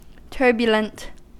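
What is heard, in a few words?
"turbulent", American English; pronunciations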